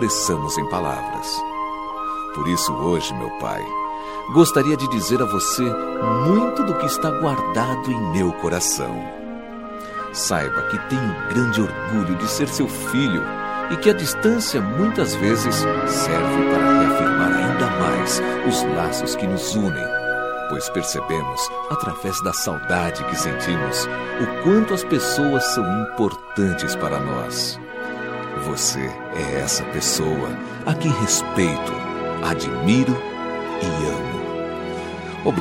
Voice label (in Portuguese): Feliz Natal pra Pai Que Ta Distante, M